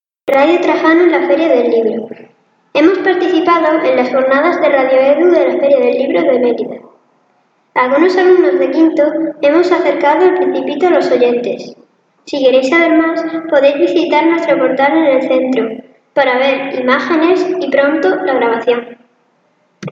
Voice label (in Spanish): Noticia Radio; Radio Trajano en feria del Libro